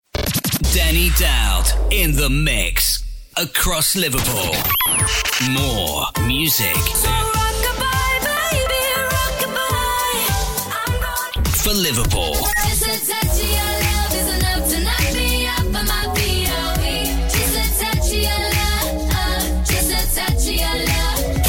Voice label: radio station voiceover; zest digital